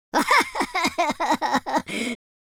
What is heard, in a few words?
lose loop, speech, Super Hero